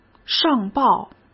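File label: "上报", Mandarin Chinese; chinese flashcards; hsk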